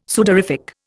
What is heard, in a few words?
"sudorific", Indian